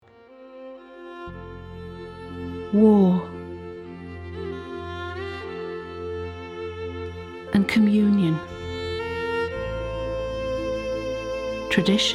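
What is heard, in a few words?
Documentary; The Village on the Loch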